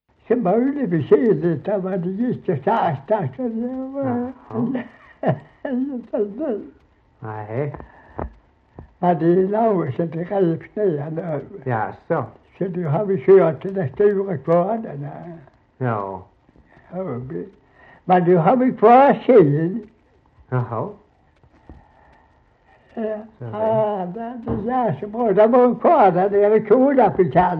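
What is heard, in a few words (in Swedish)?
Slättåkra Halland, Svenska sagor dialekter; Folkminnen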